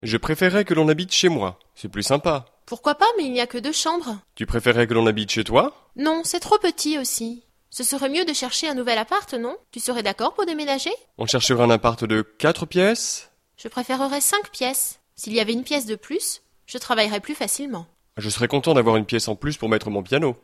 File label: dialogue, French